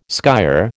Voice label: "skyer", American

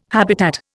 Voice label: "habitat", Indian

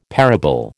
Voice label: "parable", American

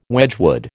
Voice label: "Wedgwood", American

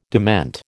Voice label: "dement", American

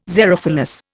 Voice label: "xerophilous", Indian

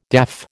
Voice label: "daff", American

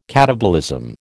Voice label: "katabolism", American

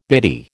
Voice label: "bitty", American

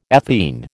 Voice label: "ethene", American

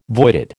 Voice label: "voided", American

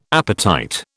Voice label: "appetite", British

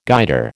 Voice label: "Guider", American

